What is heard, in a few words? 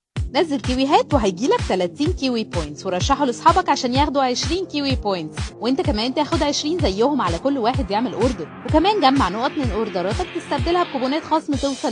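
Arabic, female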